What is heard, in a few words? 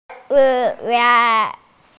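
"shu4 ye4", Mandarin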